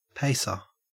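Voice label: "pacer", Australian English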